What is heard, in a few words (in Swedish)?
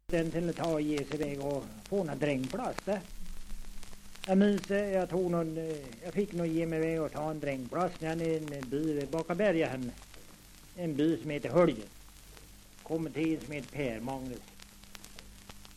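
Tuna Medelpad, dialekter, svenska sagor; Folkminnen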